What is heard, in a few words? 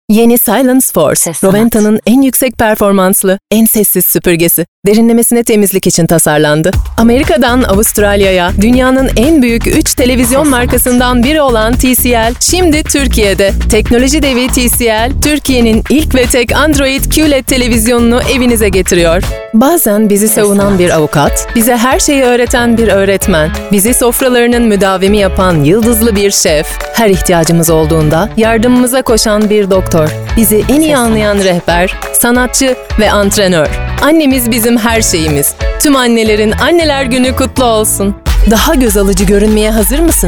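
Turkish, female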